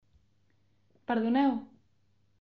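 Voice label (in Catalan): "perdoneu", Catalan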